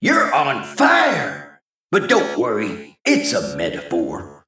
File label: Male Mech First Place